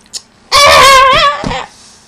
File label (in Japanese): "あああああ！", 姉貴